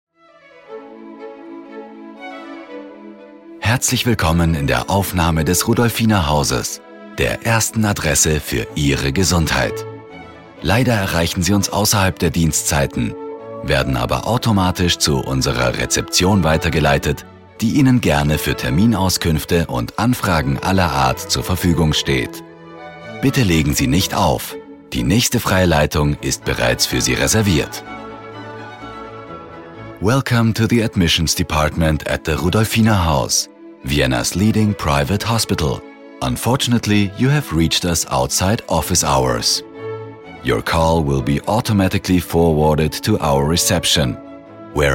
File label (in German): Telefon; Rudolfinerhaus